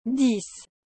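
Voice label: "dix", French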